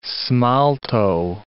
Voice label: "smalto", English

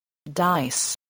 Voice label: "dice", spelling

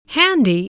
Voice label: "handy", English, spelling

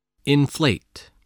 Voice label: "inflate", spelling voice

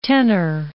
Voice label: "tenor", English

